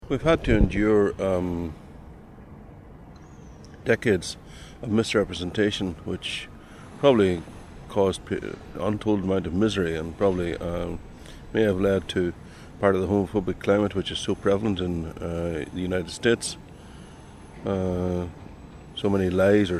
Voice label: Ireland Belfast Professor

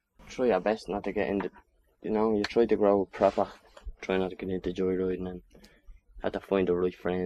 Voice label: Ireland Tralee Dublin male 17 monologue

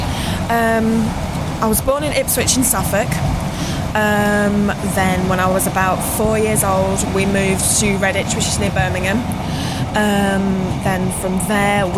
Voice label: Various UK White Female Age 27; europe; british

